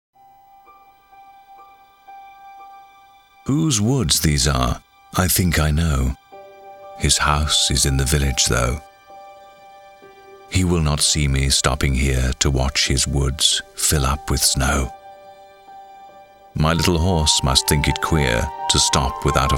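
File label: poetry, voice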